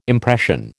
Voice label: "impression", American